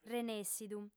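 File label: "renèssidu", Sardinian, female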